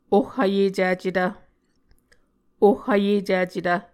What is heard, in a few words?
"oh vyEcicetv", Muscogee; Mvskoke Words with Voicing Consonants; Martin, Jack B., and Margaret McKane Mauldin. 2023. A Dictionary of Creek/Muskogee; SIL International